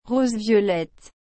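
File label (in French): "rose violette", French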